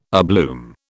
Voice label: "abloom", British